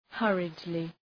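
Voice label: "hurriedly", English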